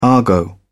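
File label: "argot", British English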